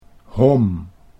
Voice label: "hom", Dutch